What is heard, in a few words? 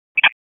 Raleigh Police Northeast District Tac, p25; wake p25